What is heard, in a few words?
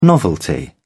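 "novelty", British English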